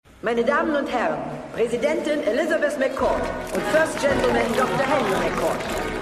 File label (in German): Ansagerin; Madam Secretary